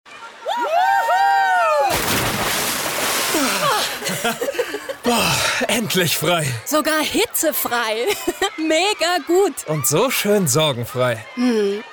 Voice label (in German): Funkspot; VITA-LIMO-zuckerfrei